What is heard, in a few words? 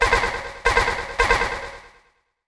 VOICEFX TEKNO 140, Techno, Voice